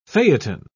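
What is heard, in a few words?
"phaeton", English, British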